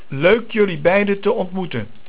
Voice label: Leuk, Dutch

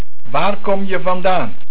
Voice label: Waar, Dutch

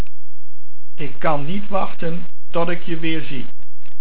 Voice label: Wachten, Dutch

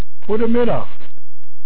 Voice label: Goedemid, Dutch

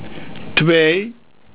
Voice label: "twee", Dutch counting

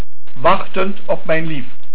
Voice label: Wachtend, Dutch

